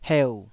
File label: "heu3", taiwanese